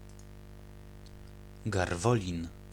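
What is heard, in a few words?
"Garwolin", Polish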